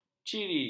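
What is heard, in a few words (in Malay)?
"ciri", Malay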